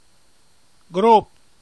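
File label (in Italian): "Gróp" Emilian dialect word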